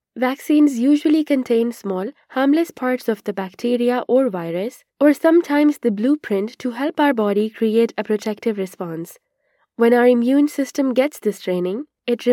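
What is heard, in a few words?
female voice, English accent, Pashto; voice demos; GoLocalise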